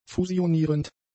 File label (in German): "fusionierend", German; adjectives